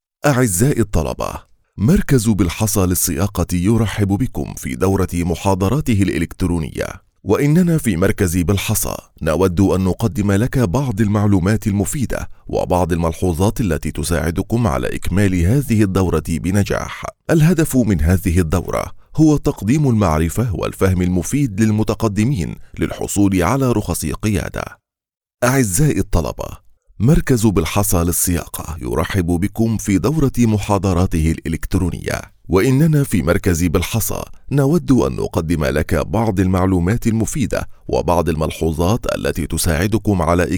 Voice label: e-learning